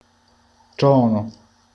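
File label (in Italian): "tuono", Sicilian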